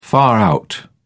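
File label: "far-out", British English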